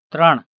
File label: "3" Gujarati